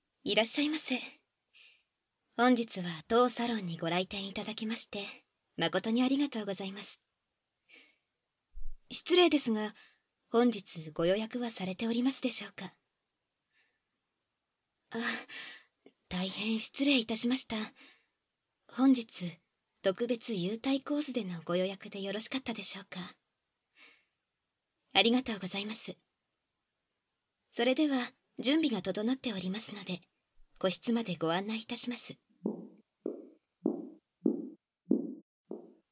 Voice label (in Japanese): 入店, バイノーラルマイク収録 効果音あり